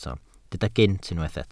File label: "gynt", Welsh